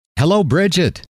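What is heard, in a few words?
hello, male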